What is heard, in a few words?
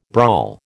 "brawl", American